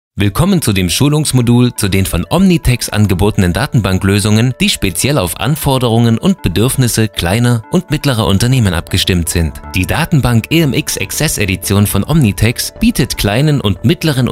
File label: E-Learning